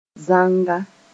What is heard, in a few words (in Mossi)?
"zanga", moore